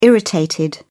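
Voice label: "irritated", British English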